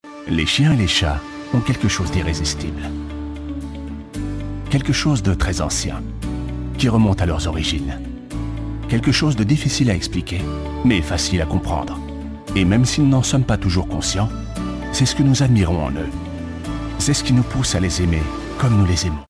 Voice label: male french pet comercial; ideasonora